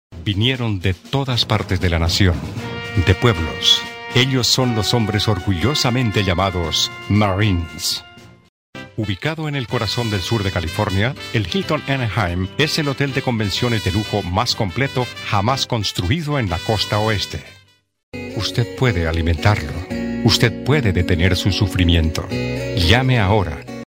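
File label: Spanish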